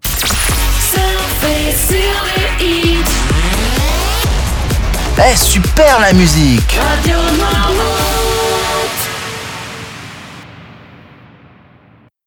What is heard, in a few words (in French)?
super la musique, jingles